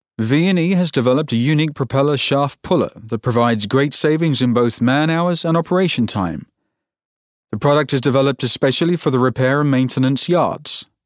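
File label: British e-learning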